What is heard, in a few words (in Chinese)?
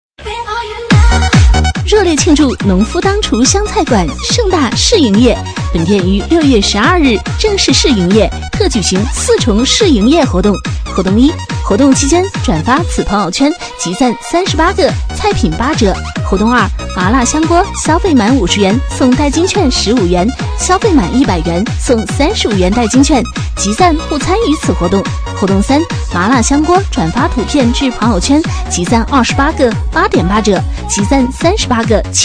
女 促销, 湘菜馆; 农夫当厨湘菜馆